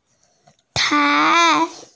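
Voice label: "tai2", Mandarin Chinese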